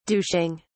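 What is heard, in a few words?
"douching", British English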